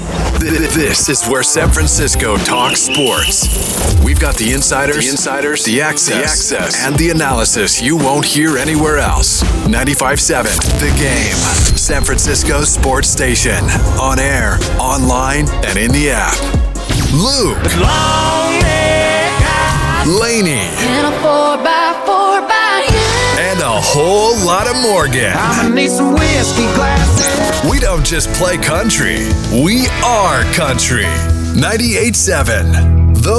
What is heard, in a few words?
Radio Imaging